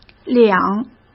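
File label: "两", Mandarin Chinese; hsk; chinese-flashcards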